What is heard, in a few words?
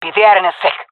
jungle fem epic; omw; vo; agents; Source Model Packs; Counter Strike Model Pack; CS2